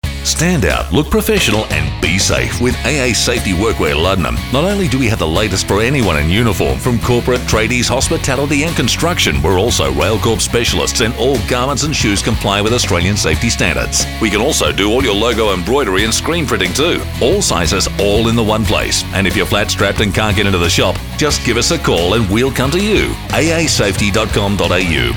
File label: Radio Advert; AASafety